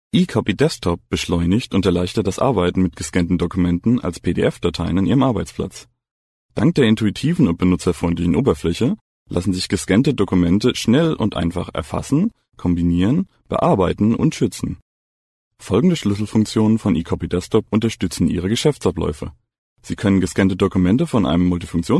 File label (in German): Film Sprecher Deutsch; Nachvertonung